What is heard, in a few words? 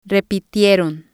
"repitieron", spanish